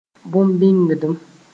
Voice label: "bõn-bĩngdim", moore